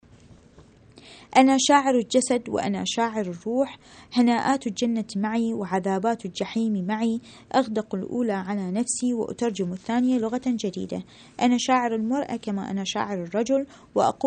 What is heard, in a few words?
arabic